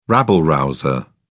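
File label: "rabble-rouser", English, uk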